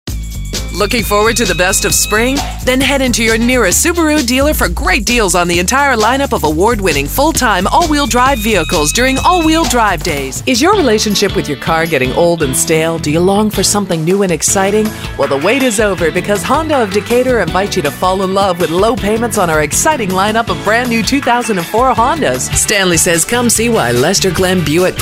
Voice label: Car Spots